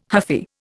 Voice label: "huffy", Indian

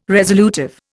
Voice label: "resolutive", Indian

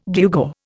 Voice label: "gewgaw", Indian

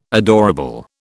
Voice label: "adorable", British

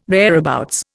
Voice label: "thereabouts", Indian

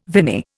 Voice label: "viny", Indian

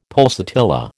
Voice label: "pulsatilla", American

